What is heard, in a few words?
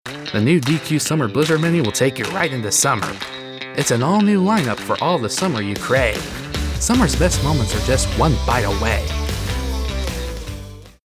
Upbeat